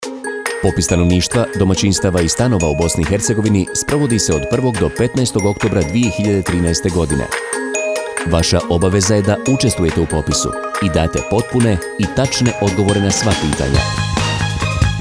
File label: Radio jingle; Popis; javna kampanja